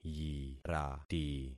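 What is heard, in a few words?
"yirati", concatenated syllables; Affixing experiment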